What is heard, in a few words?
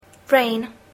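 "rain", English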